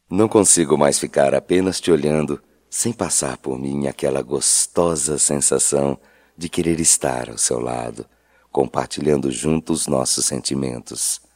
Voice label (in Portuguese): Paquera Masculino, Só Voz; Telemensagens; Telemensagens 2017